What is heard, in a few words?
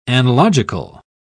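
"analogical", British English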